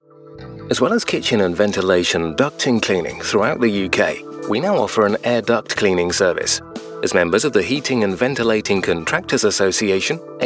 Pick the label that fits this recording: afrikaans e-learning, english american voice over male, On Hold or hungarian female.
On Hold